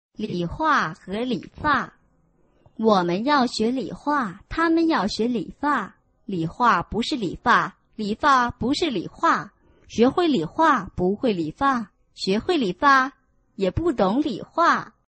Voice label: chinese tongue twister